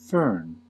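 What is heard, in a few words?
"fern", US English; pronunciations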